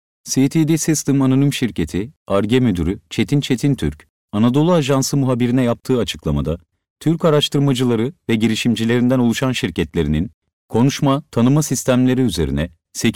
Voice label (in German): Nachvertonung Film Sprecher Türkisch